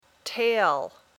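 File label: "tail", English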